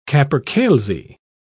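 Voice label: "capercailzie", British English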